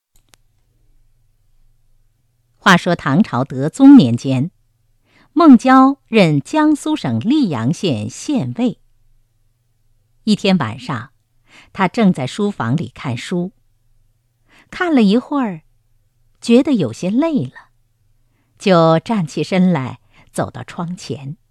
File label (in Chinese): 旁白 讲述配音